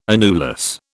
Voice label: "annulus", British